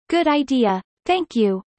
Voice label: fast; studying abroad